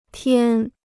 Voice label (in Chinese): "天", Chinese; tiān; day; sky